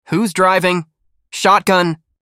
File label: Whos driving. Shotgun, american boy